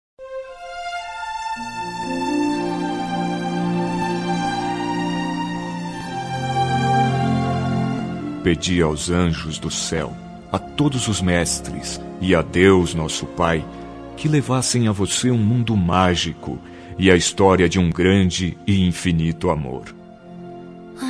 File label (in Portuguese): Romântica Alma Gêmea M